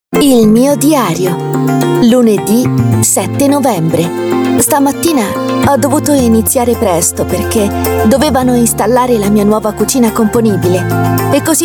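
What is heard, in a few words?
Italian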